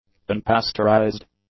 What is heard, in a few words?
"unpasteurized", english; adjectives